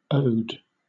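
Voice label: "ode", British English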